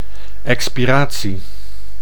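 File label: "expiratie", Dutch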